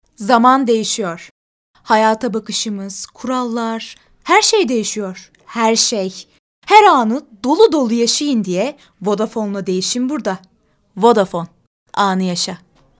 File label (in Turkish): reklam